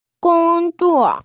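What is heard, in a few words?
"gong zuo", Mandarin Chinese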